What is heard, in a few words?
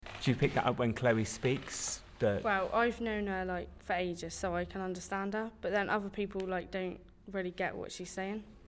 chulmleigh uptalk